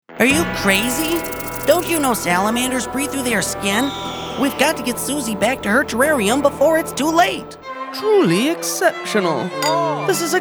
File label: Animation